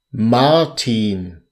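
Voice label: "martin", German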